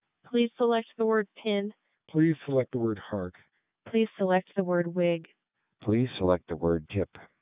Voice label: P25 clean; Codec